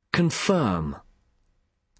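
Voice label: "confirm", British English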